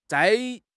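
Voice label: "zai4", Mandarin Chinese; HSK1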